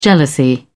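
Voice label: "jealousy", British English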